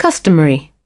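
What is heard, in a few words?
"customary", British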